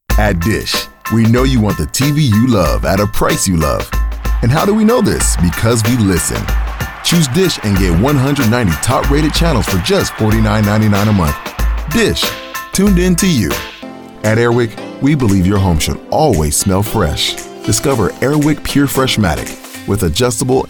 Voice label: Commercial, Male voices